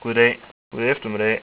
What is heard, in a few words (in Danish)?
goddag, danish